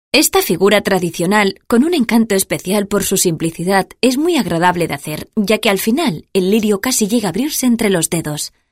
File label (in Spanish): locutora castellano